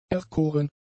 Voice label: "erkoren", German; adjectives